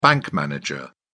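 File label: "bank manager", British English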